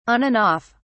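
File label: "on and off", English, British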